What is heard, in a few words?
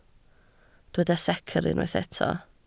"secr", Welsh